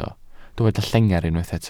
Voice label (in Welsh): "llengar" Welsh